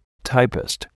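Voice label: "typist", English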